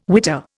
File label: "witter", Indian